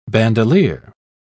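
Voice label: "bandoleer", British English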